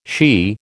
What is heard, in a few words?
"she", American